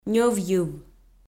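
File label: "ньӧв йыв", Komi, female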